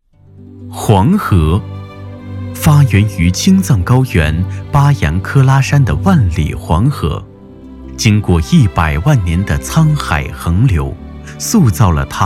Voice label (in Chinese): 专题类 黄河治理